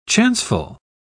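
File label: "chanceful", British English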